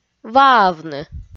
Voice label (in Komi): "ваавны" kpv f